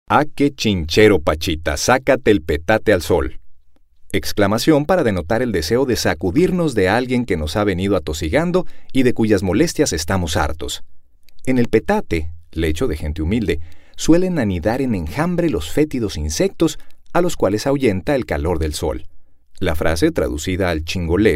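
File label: Male Voice Over, Spanish, Audiobook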